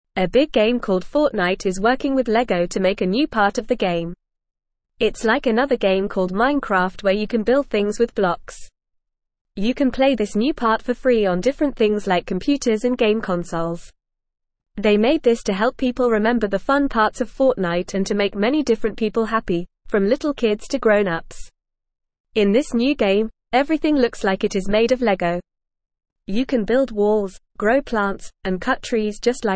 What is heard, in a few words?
English Newsroom Beginner FAST Reading; Fortnite and Lego Make Fun Game Together